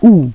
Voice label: "U geschlossen", Navigation; DISTEFORA Navigation; Mobile Navigator Notebook

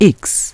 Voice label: "X geschlossen", Navigation; DISTEFORA Navigation; Mobile Navigator Notebook

